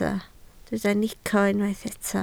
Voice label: "nico", Welsh